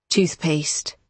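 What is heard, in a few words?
"toothpaste", British English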